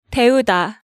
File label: "Deuda", Hangeul sounds; DigitalSoju